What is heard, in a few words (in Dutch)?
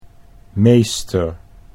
"meester", Dutch